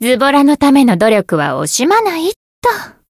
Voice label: growth, jp

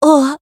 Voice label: Voice damaged

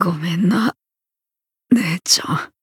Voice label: Voice retire jp; ciel

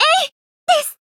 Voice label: finish, jp

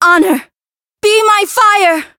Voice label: Voice variable chant

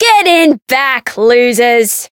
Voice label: Voice variable chant; kid

